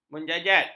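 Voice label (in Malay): "menjajat", Malay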